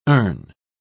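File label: "erne", British English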